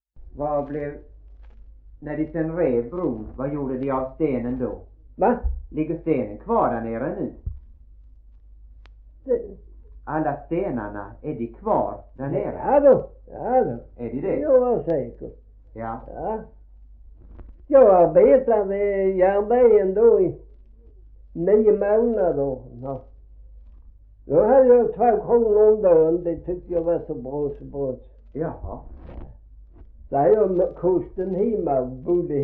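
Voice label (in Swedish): Gråmanstorp Skåne, dialekter svenska sagor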